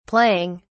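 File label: "playing", British English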